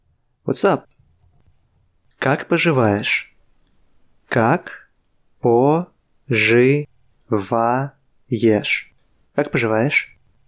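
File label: Russian What's up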